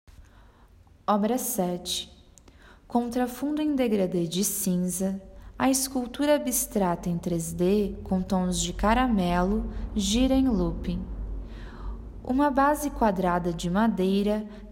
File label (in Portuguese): Audiodescricao; Exposição; Galeria Virtual